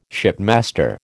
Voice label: "shipmaster", American

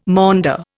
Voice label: "maunder", Indian

